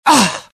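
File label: death, dialogue generic Italian; mohaa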